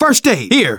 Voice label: spot first aid, survivor voice manager; Left 4 Dead 2; left4dead2 dlc3